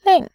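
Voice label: "lin", Mandarin, tone 4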